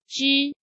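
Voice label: "Zhī", Chinese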